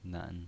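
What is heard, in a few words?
"nen", pinyin voice, Mandarin Chinese, third tone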